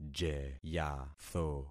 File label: "jeyatho", concat syllables; Affixing experiment